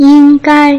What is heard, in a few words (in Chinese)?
"ying1 gai1", Putonghua